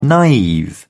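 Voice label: "naive", British English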